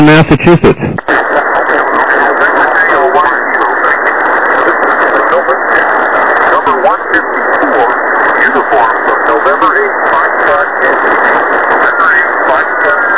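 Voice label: lower sideband 7MHz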